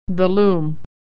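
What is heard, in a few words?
"loom", American English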